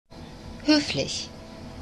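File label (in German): "hoeflich", German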